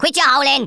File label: "coward", Rasta